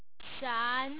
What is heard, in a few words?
"shan2", Hong Kong tone